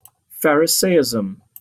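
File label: "pharisaism", British English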